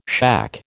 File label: "shack", American